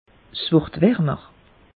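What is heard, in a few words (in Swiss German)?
"warmer", Alsatian; meteo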